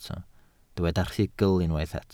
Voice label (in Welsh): "rhugl", Welsh